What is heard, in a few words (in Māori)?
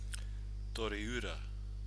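"toriura", Māori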